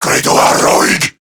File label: Reload, Male